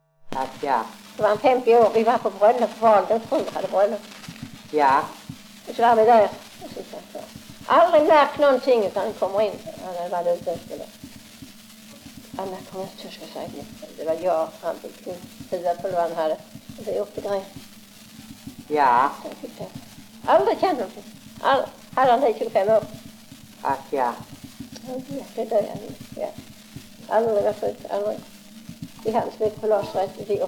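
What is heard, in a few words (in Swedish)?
Lunds stad, Swedish dialect; Folkminnen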